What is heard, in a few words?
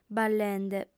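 "ballende", female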